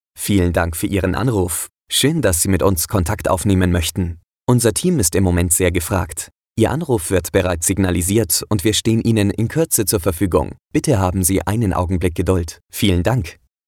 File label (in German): Begruessung (m)